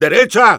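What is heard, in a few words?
Engineer head right, Spanish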